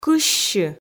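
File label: "кыссьы", Komi, female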